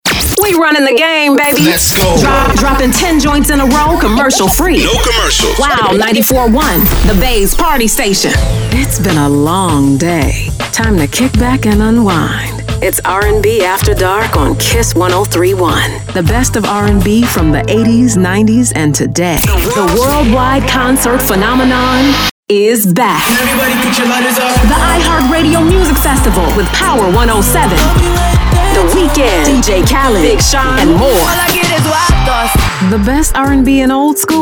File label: Urban AC Radio Imaging